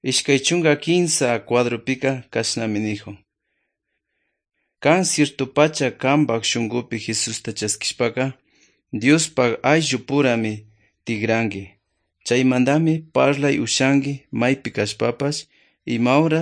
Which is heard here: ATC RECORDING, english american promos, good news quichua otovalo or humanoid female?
good news quichua otovalo